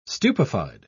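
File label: "stupefied", English, uk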